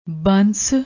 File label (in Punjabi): "banss", Punjabi